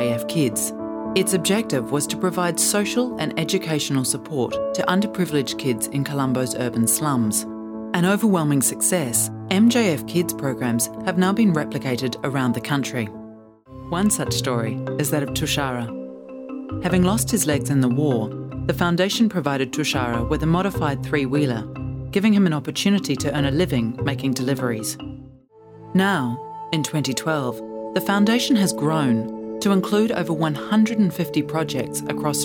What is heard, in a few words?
Narration, en-AU